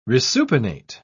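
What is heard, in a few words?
"resupinate", English, British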